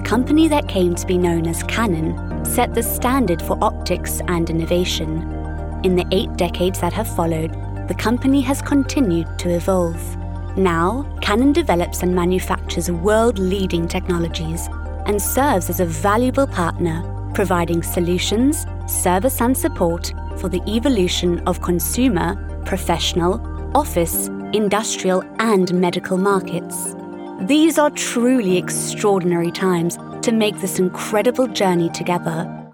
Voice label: Corporate

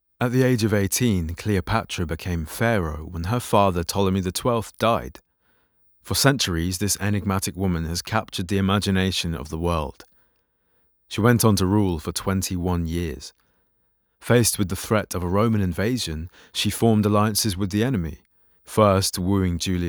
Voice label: Narrative; regional accents

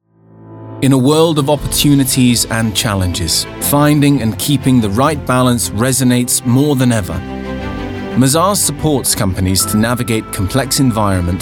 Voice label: CORPORATE; regional accents

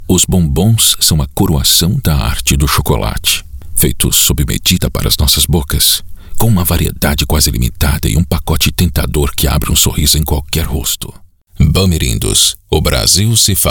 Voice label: Brazillian

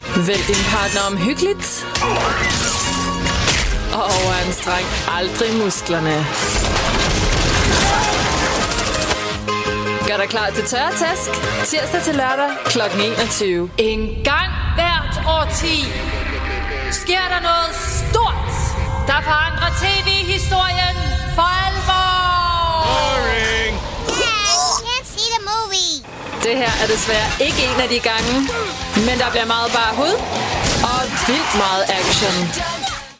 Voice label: Danish

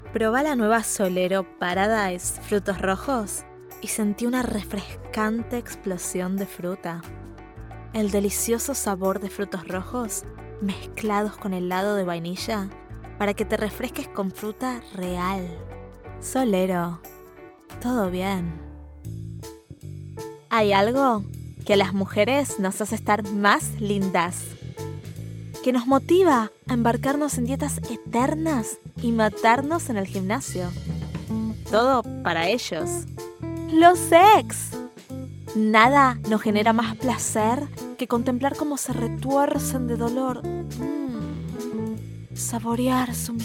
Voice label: Spanish Latin

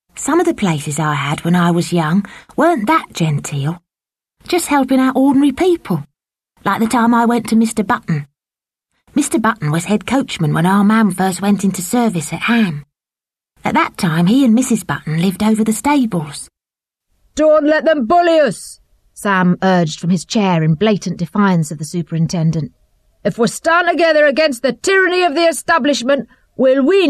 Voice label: Drama Mix; 20s-30s; Female; South African

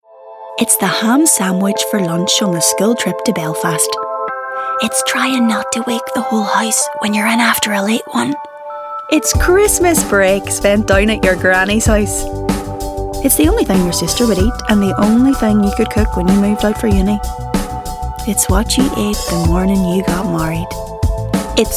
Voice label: Ad

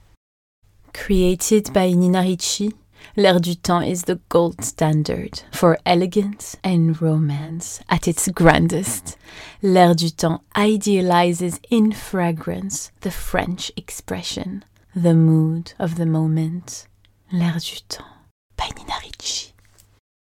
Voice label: English French accent

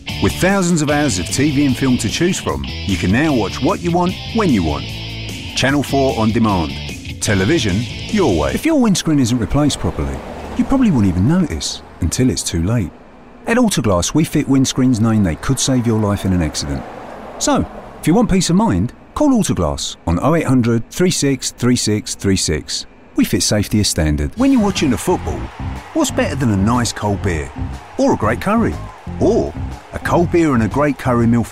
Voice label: Commercials; regional accents

